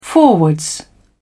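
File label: "forwards", English, British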